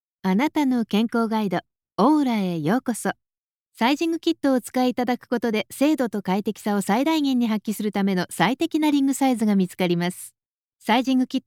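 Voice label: Japanese, female, E-Learning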